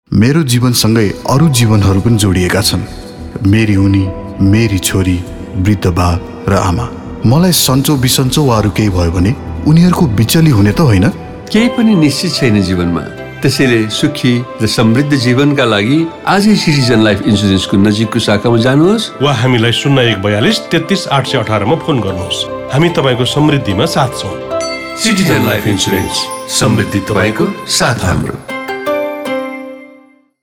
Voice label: MONOLOGUE